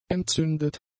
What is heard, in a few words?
"entzündet", German; adjectives